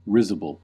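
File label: "risible", US English; pronunciations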